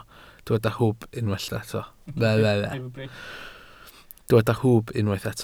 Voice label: "hwb", Welsh